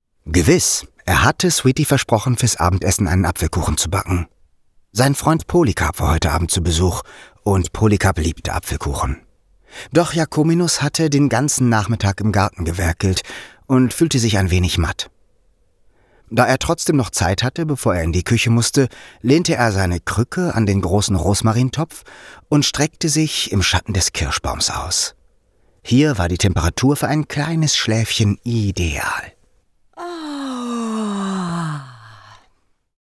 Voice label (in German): Hoerbuch, professioneller Sprecher; Jacominus